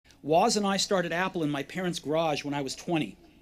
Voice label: Commencement Address; Steve Jobs; 2005; Stanford